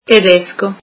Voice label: "German", italian

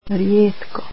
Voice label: "rarely" croatian

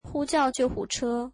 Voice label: Call the ambulance, mandarin

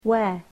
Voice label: "where", English

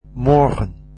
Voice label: "tomorrow", Dutch

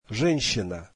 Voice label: woman, russian; женщина [ʐyenshtina]

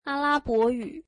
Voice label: Arabic, mandarin

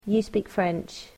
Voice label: "you speak French", English

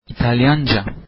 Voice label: "Italian", Turkish

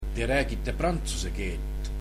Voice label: you speak French, estonian

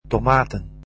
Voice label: tomatoes, dutch

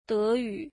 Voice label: German, mandarin

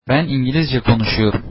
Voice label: I speak English, Turkish

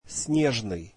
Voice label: snowy, russian; снежный [snyeʐniy]

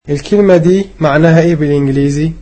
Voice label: "What does that word mean in English", egyptian

